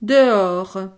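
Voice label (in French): "dehors", French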